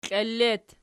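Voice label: "kiili" locative, Archi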